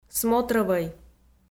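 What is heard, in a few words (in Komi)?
"смотрӧвӧй", Komi, female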